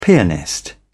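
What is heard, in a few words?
"pianist", British English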